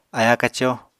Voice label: "aya-kaco", Apatani